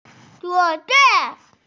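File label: "zuo4wei4", Mandarin Chinese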